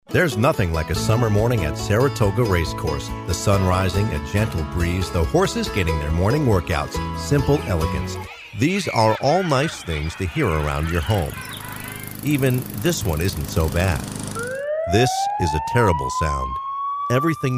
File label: commercial